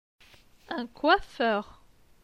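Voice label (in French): "Un coiffeur", French; phonetique; les consonnes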